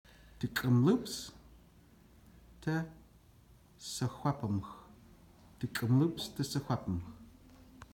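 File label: How to say TteS, secwepemc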